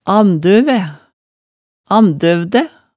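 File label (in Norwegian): "andøve, andøvde", Hemsedal dialect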